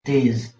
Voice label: "tiz", Urdu

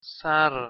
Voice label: "sar", Persian

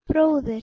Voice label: "brother", Proto Germanic

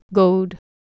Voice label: "god", Anglo Saxon

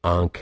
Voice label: "aankh", Urdu

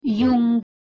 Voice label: "jung", Proto Germanic

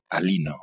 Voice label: "alino", Proto-Germanic

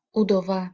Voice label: "udova", Ukrainian

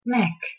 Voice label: "mek", Bosnian Croatian Serbian

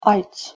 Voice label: "aits", Armenian; այց aits; “visit, search, care for”

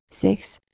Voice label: "sehs", Proto Germanic

